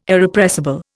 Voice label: "Irrepressible", Indian